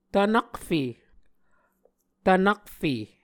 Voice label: "tvnakfe", Muscogee